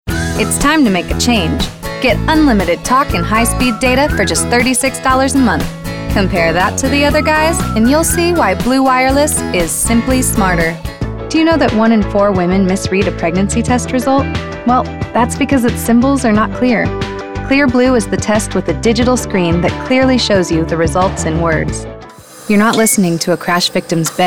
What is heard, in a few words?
English